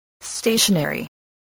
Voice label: "stationary", voice

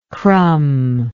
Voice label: "crumber", english

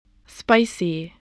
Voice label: "spicy", English voice

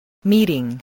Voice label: "meeting", voice, spelling

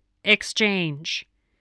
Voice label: "exchange", voice, english

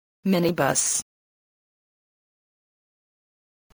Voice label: "minibus", voice, spelling

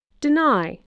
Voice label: "deny", English

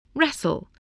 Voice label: "wrestle", voice, english

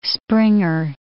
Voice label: "springer", english

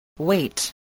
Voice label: "weight", spelling

